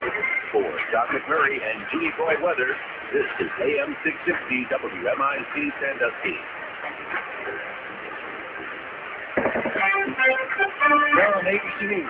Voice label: radio; 660 wmic